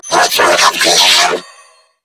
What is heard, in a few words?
Player Spotted Grineer Female; New War; Narmer